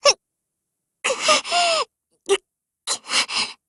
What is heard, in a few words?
BREAK JP; GF Alarm; M4A1